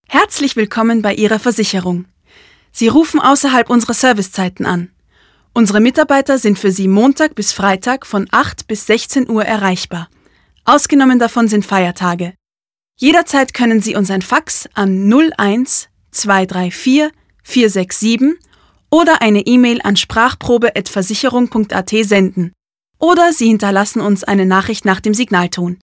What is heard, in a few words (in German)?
Telefonansage (DE), Versicherung; Demo Telefonansage (DE)